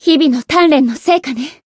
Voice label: Voice growth jp

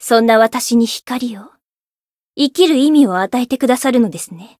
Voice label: story, jp; niike

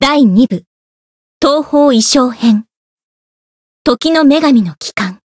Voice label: Voice title jp

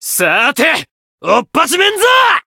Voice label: limit break, jp

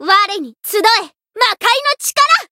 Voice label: Voice variable chant, jp; suzette

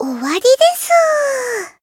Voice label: Voice finish jp; soira

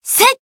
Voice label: attack jp

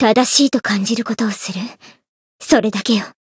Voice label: Voice win, jp; renri

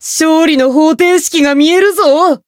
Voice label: Voice chant

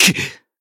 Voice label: damaged, jp; zeviro

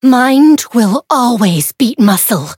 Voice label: Voice encount fear

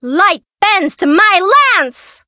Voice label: Voice variable chant

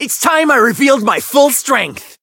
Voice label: chant; nero

